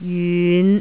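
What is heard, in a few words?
"jyun5", Cantonese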